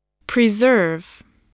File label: "preserve", voice, spelling